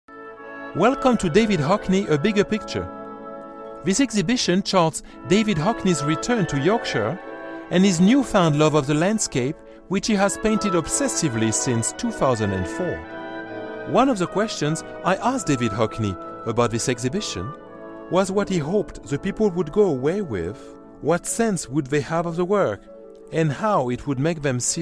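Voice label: French, Accented English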